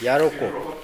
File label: "yaroko", minamiyamagata